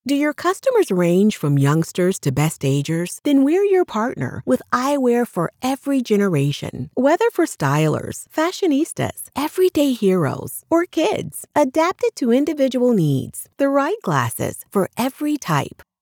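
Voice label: English, US, female, commercial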